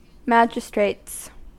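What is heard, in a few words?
"magistrates", US English; pronunciations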